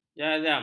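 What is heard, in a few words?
"jazam", Malay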